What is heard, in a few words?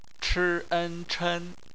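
"chen", bopomofo, chinese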